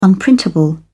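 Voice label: "unprintable", British English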